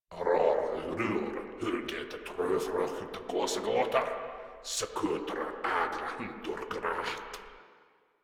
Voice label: Patrol, Grineer Male; Warframe